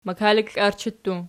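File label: "makaliklarchi" plural, Archi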